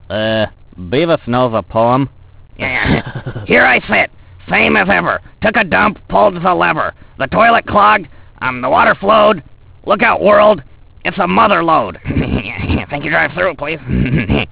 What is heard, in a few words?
poem